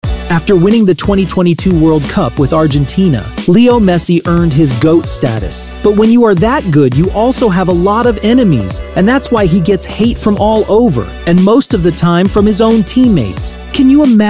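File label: English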